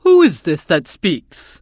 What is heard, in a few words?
NPC Tuskarr Female Greeting; Warrior